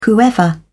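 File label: "whoever", British English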